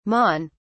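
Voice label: "mon", British English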